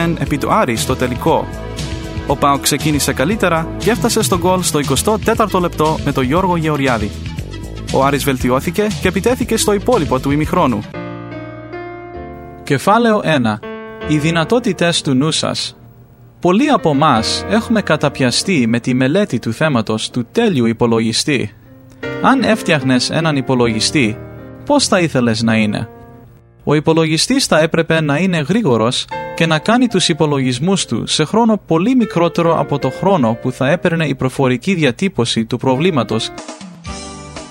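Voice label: Greek, Ethnic Voice over Talent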